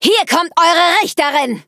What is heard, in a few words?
battle, de; Cookie Run